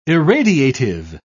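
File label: "irradiative", British English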